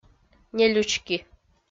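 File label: "нелючки", Komi-Zyrian, female; kpv audio dict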